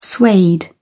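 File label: "suede", British English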